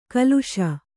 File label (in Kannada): "ಕಲುಷ", Kannada